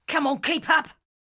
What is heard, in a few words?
Ranged Female Patrol Line